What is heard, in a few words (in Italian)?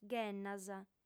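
"ghennas", lingua sarda, female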